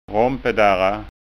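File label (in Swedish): "rompedarra", Skåne dialect Swedish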